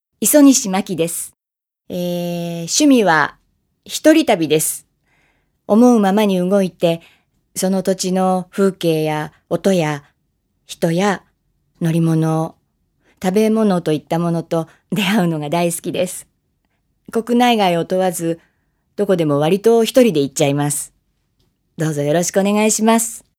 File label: female voice freetalk